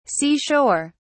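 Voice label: "sea shore", English, British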